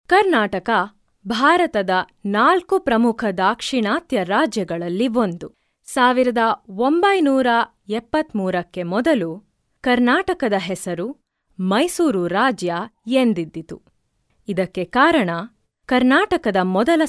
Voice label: female kannada voice over narrative

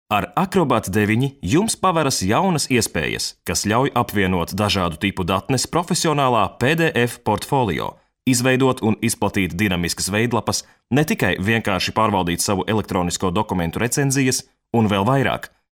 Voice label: male latvian voice over talent narrative

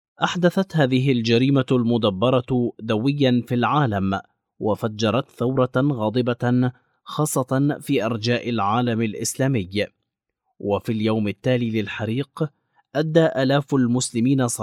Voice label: male arabic voice over narrative

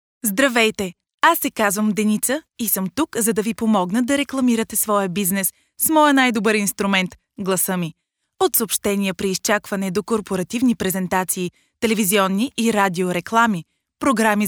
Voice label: female bulgarian voice over narrative

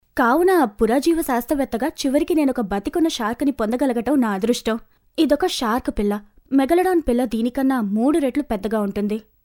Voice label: female telugu voice over narrative

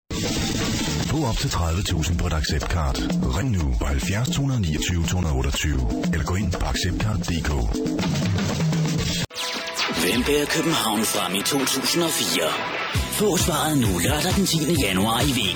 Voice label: male danish voice over sales

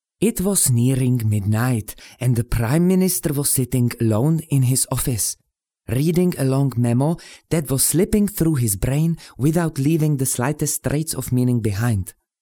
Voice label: male czech voice over english

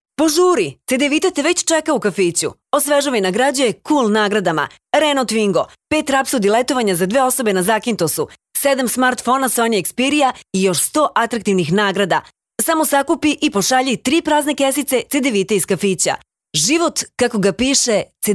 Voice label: female serbian voice over sales

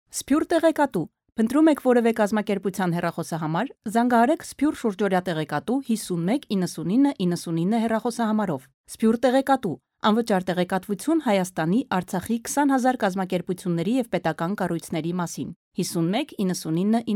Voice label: female armenian voice over narrative

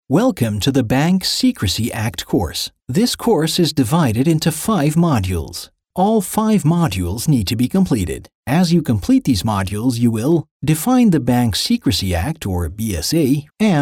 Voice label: male canadian voice over narrative

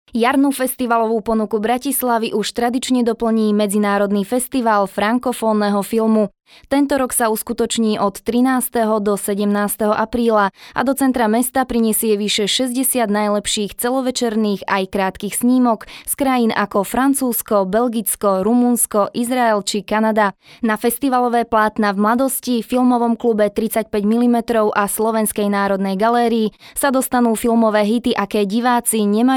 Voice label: female slovakian voice over talent narrative

